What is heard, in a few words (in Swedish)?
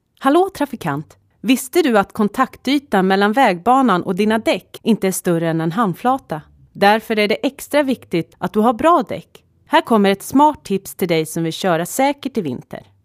bilreklam